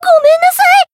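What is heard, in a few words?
damaged, Japanese; melody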